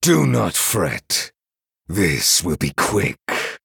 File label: Male Night Elf Threaten